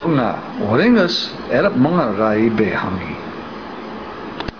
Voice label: Tobian; Unga woringires erap mangau ra ibe hengi; Yes, in England I eat a plenty (or much); Eelah, nang mukkah woar Inglish peepee; The earliest-known examples of Tobian speech: Dialogues in the Language of Lord North's Is